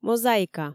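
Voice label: "мозаика", Komi, female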